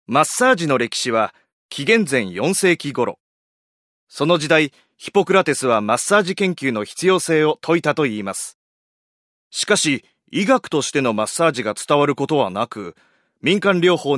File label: narration